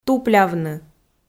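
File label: "туплявны", Komi, female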